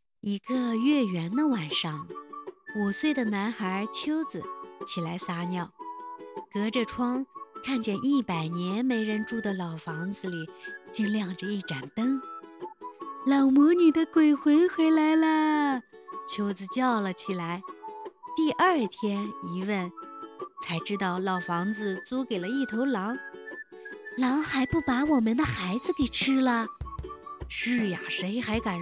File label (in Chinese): 儿童故事狼